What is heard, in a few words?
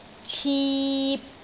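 "cip3", Cantonese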